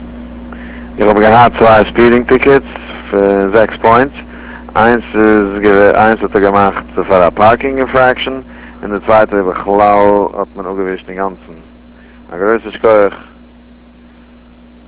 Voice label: yiddish

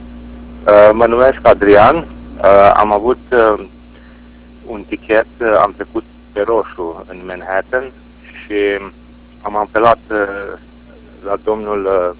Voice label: romanian

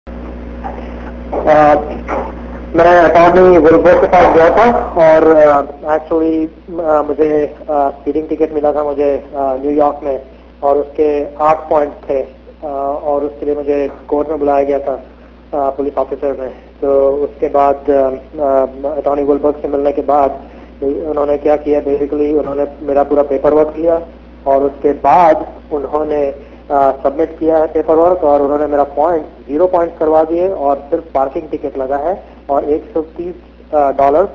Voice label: hindi

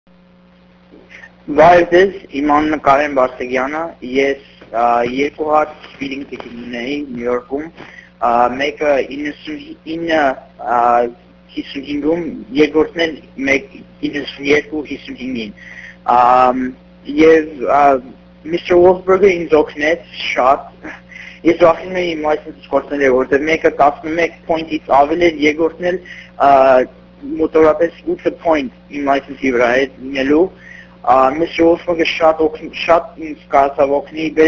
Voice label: armenian

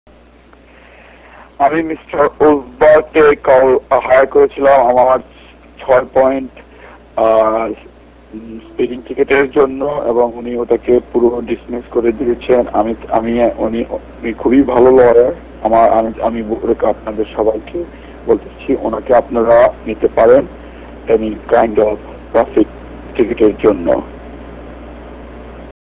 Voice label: bengali

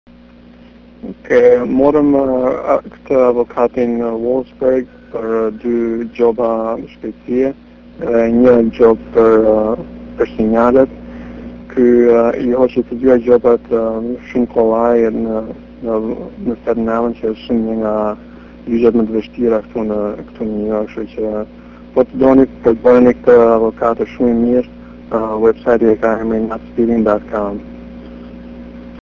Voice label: albanian

